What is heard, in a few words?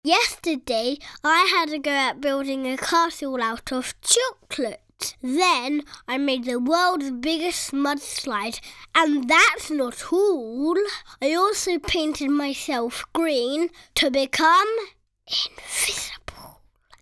Ad; PERSIL CHOCOLATE; 5-7 London/Neutral/French, Sweet/Expressive